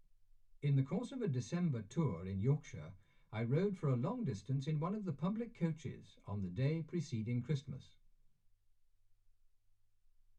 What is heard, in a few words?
male speech english